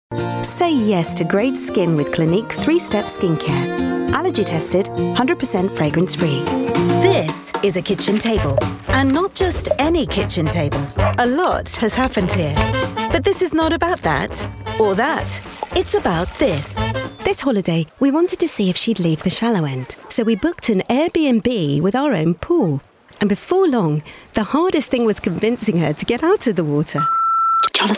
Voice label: commercial

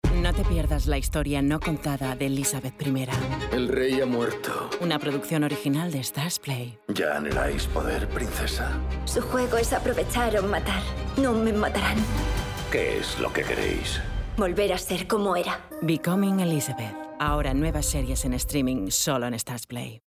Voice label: Spanish Promo

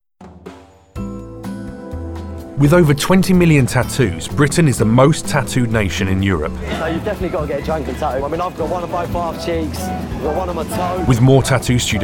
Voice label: narrative

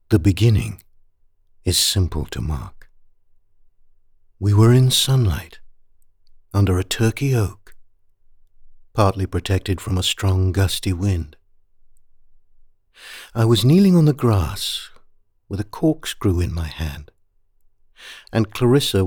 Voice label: audiobook, home studio; ian mcewan

